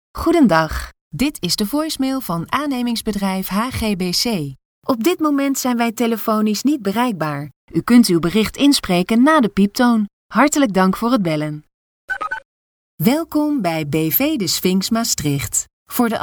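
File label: Dutch female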